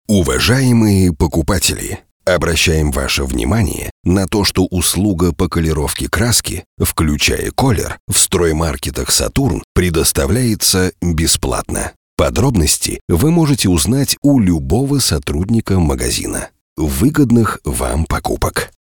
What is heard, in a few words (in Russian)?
golosovoe obyavlenie; Saturn; Сатурн